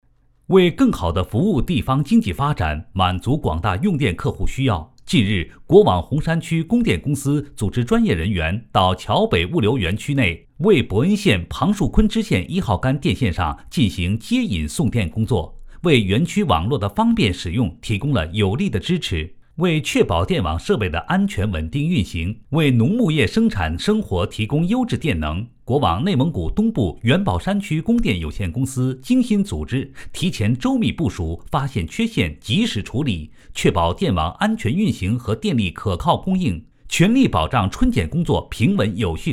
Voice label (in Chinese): 男 新闻